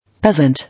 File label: "Peasant", English